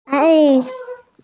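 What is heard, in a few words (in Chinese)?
"a yi", Mandarin Chinese, female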